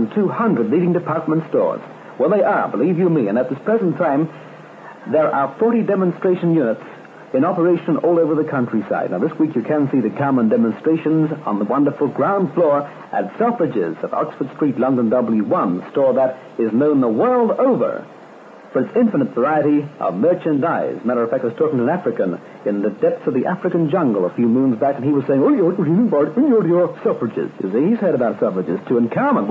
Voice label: commercial Hair Rollers; Radio City; Carmen Hair Rollers